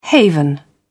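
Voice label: "haven", British English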